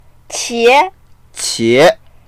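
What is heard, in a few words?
"qie2", Mandarin Chinese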